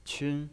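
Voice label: "qun", Mandarin Chinese, first tone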